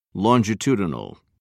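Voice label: "longitudinal", British English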